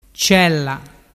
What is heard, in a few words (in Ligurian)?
"cella", dialettu